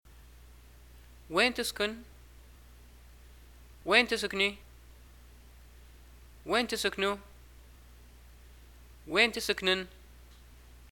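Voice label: where do you live, Libyan arabic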